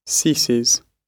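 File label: "ceases", British English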